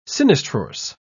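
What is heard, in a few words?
"sinistrorse", British English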